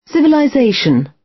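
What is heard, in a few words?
"civilization", British English; Time, history; Thời gian, lịch sử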